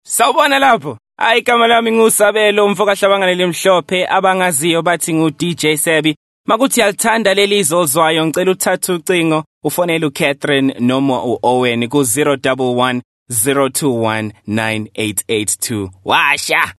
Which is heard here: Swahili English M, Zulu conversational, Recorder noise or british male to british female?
Zulu conversational